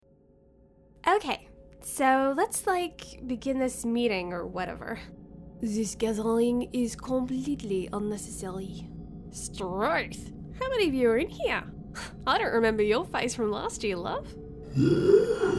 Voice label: Character; Voice Over for Animation and Cartoon Characters